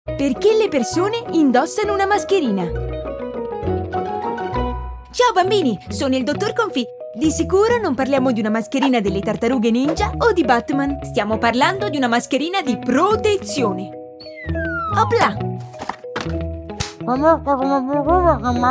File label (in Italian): cartone animato